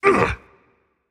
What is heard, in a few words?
ouch rage; chaac